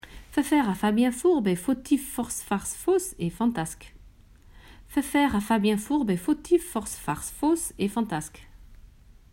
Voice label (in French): virelangue